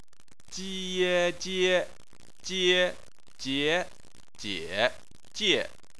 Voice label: "jie", chinese